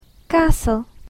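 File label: "castle", English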